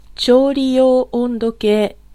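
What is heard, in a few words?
Japanese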